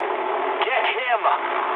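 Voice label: English attack position mood med; speech; War Thunder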